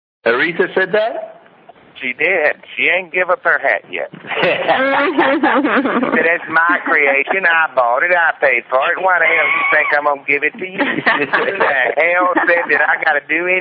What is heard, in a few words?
rant